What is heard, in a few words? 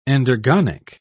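"endergonic", British English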